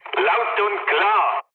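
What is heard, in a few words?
German chat, speech; War Thunder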